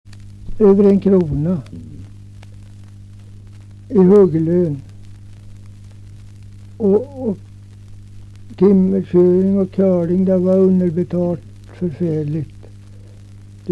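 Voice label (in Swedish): Nysund Närke, dialekter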